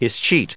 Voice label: "escheat", us